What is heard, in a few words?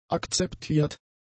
"akzeptiert", german; adjectives